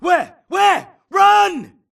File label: grunt grenade response; imc; Titanfall 2